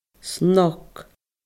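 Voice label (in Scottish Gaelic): "snog", Scottish Gaelic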